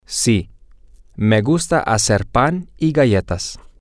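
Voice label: yesILikeToMakeBreadAndCookies, Spanish, male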